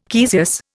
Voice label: "caesious", Indian